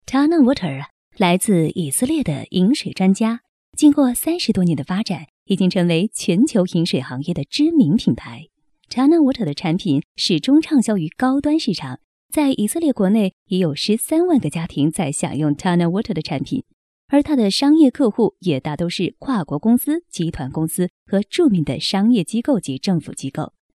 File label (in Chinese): 专题女国, 清新优雅; 专题片配音